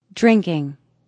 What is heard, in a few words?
Woman Says Drinking; Woman talking; Drinking Sound Effects; Drinking (Sound Effects:Human)